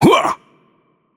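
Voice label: Russian attack heavy voice; bloom minigame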